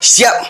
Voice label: "syp", voice; ooh ahh

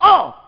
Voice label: "oh" male

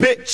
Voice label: "bitch", expletives

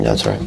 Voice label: "that's right", male; Voice

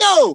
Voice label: "yo", Voice

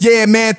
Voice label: "yeah man", voice

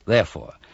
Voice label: "therefore", male

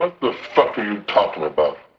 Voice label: what the fuck are you talking about, male; questions

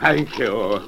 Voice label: "thank you" geezer; Voice; expletives

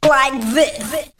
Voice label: "like this", female

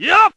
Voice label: "yo", voice

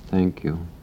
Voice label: "thank you" sleepy

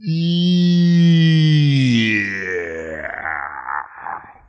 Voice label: "yeah" long; ooh ahh

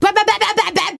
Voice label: gibbrish, voice

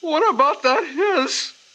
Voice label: "what about that", hiss, voice; questions

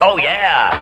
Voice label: "oh yeah", Voice; kool aid man

